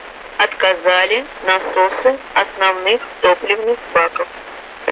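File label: Russian speech, Mi-8MT RI65; Messages pumps the main fuel tanks failure; DCS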